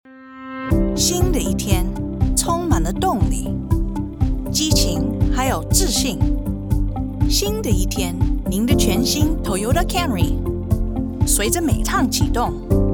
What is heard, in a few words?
chinese upbeat; Toyota